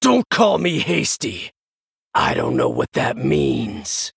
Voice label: Male Human